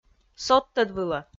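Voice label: "содтӧд вылӧ", Komi, female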